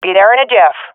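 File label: omw, swat female; vo; Counter Strike Model Pack; CS:GO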